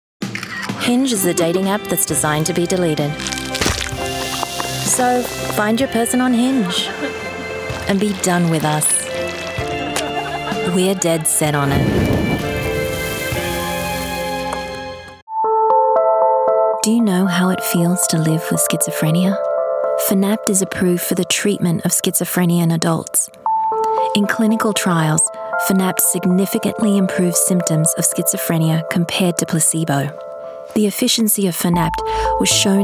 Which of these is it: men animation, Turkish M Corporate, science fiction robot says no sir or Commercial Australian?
Commercial Australian